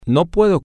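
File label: I am able to can no, Spanish, male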